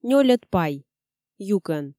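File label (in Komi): нёльӧд пай юкӧн, Komi, female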